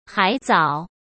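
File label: "海藻", Mandarin Chinese; hsk; chinese-flashcards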